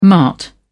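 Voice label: "mart", British English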